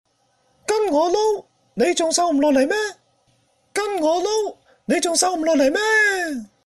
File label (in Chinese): 男粤 动画 模仿, 粤语; 模仿曾志伟